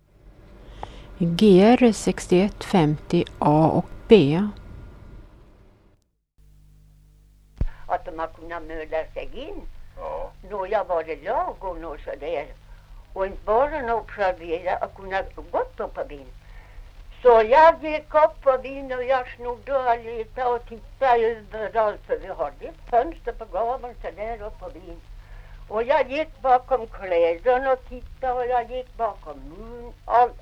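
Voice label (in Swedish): Ovansjö Gästrikland, dialekter; Folkminnen; Svenska sagor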